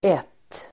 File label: "ett", Swedish